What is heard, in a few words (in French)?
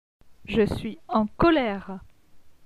"je suis en colere", francais